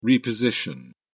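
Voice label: "reposition", British English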